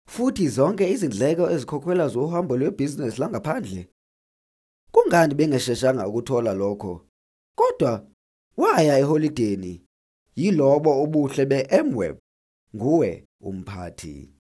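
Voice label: Zulu, male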